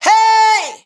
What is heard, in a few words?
"Hey", adlib, Dry Vocals; Raw Vocals; Adlibs